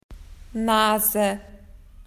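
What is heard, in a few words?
"nose", Australian English; PictureDictionary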